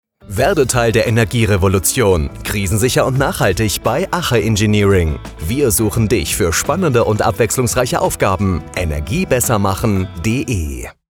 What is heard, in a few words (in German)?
Funkspot; Ache Engineering GmbH